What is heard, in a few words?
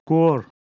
"kor", Pashto